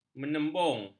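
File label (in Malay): "menembung", Malay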